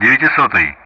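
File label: Speech Russian; DCS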